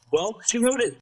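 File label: VoiceActing es, Male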